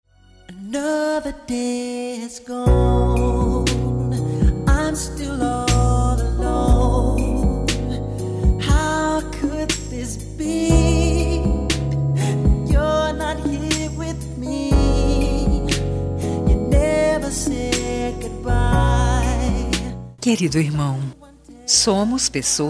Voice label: aniv irmao pl fem

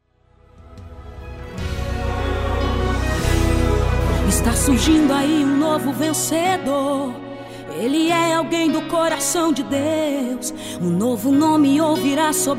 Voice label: aniv irmao evang masc

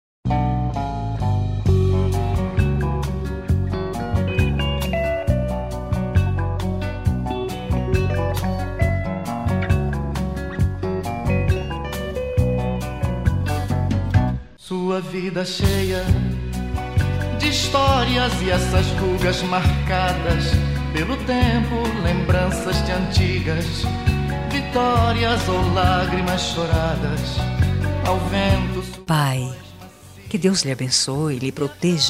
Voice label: ANIV PAI PL FEM; aniver de pai

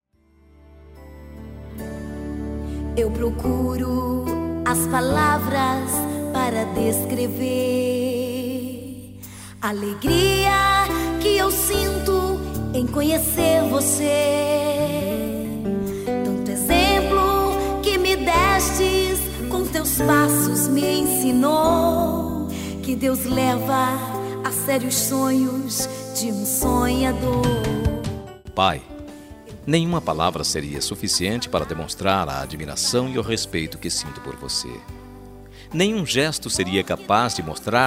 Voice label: ANIV PAI MASC; aniver de pai

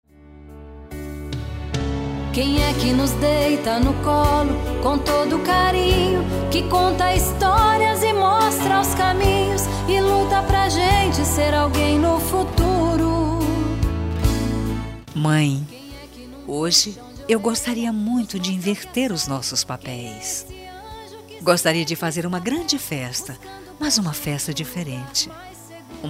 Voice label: aniv mae fem; aniver de mae

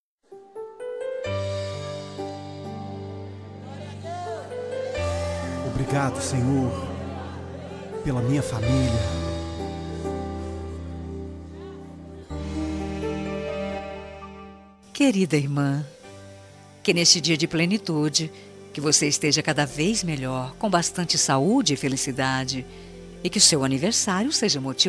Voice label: aniversario irma evangelica voz feminina; aniver irmaos

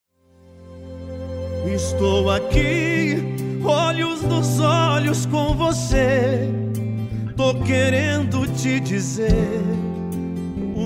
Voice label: aniv mae fem; aniver de mae

